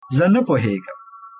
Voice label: no understand, pashto

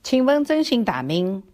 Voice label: name shanghainese

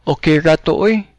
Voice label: youre welcome, cebuano

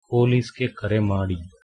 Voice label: police, Kannada

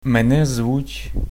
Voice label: my name is, ukrainian

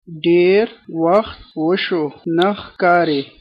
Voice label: long time no see, pashto

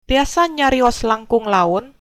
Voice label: slowly, sundanese

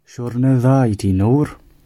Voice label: bon voyage, gwentian

